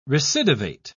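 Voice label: "recidivate", British English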